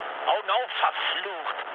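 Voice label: German player friendly fire mood low, speech; War Thunder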